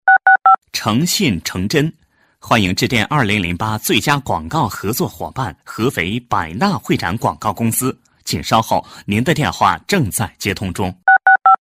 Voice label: china, foreign man